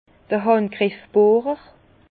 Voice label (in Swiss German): "handgriffbohrer", Bas Rhin; vin; mèche à élargir